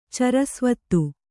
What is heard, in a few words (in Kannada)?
"ಚರಸ್ವತ್ತು", Kannada